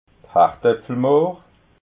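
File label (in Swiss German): "hardapfelmohr", Alsatian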